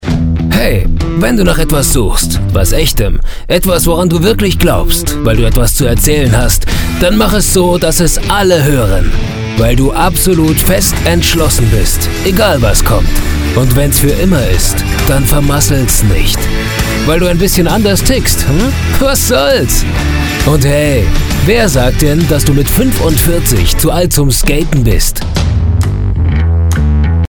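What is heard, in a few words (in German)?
männlich cool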